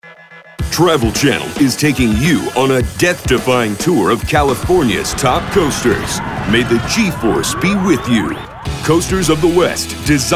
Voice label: promo